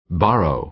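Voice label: "borrow", American English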